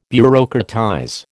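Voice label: "bureaucratize", American English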